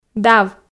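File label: "дав", Komi, female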